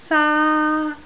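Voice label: "saa1" Cantonese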